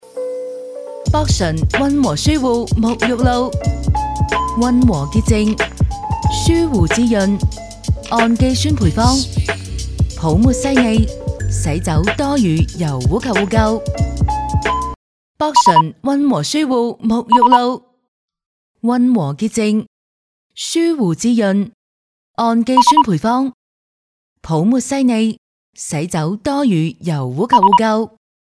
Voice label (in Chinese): 粤语品牌广告